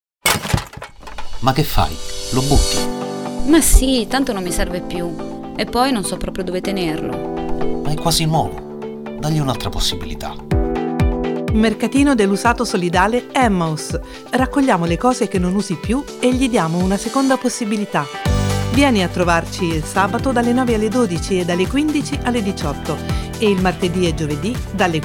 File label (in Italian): spot radio; EMMAUS-FIESSO